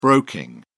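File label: "broking", British English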